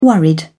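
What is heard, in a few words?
"worried", British English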